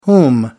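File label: "whom", British English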